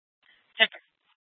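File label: Police Department North Dispatch, scanner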